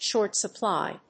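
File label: "short supply", English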